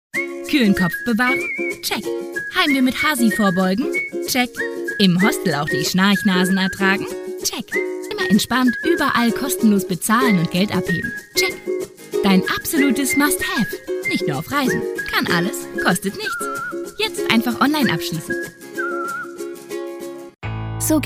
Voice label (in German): WERBUNG